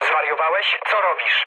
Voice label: pol player killed ally mood low, speech pol

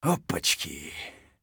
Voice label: mutter; Escape from Tarkov; scav1

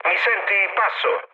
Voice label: chat, speech it; War Thunder

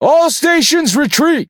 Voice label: Speech Quick Commands Back, EN; World Of Warships

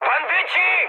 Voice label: enemy detected mood high, speech pol; War Thunder

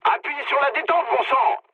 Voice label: attack position mood low, speech fr; War Thunder

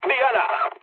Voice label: bomb success, mood low, speech; War Thunder

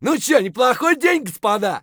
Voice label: scav mutter, voice; Escape from Tarkov; scav2

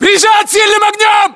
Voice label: inform suppressed generic, battlechatter ru

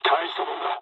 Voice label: jp trick, speech jp

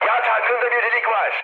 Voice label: damaged oil, mood high, speech tur; War Thunder

